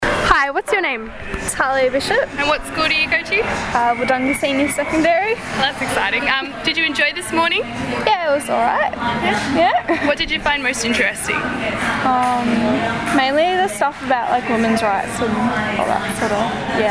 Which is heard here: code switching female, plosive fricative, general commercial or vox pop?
vox pop